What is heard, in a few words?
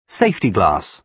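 "safety glass", British English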